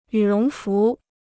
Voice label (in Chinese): "羽绒服", Chinese